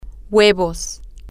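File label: Spanish, female; eggs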